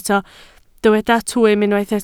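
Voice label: "twym" Welsh, spoken word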